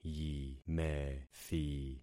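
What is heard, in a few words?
"yimethi", concatenated syllables; Affixing experiment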